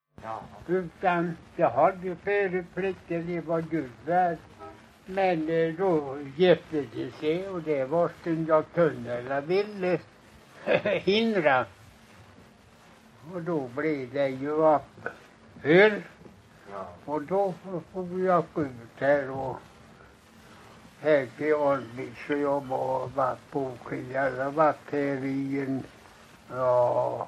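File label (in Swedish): Lommaryd Småland, dialekter; Folkminnen; Svenska sagor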